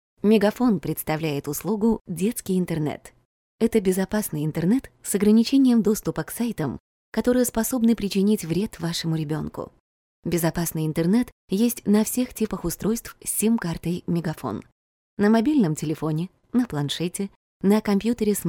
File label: russian podcasts